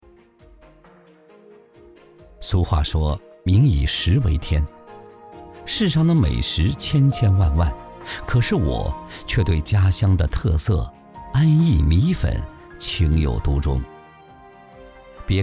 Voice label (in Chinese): 男 记录片 舌尖美食; 汤粉